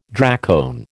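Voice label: "dracone", American English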